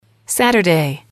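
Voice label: "saturday", English, female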